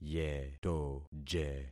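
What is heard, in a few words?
"yetoje", concatenated syllables; Affixing experiment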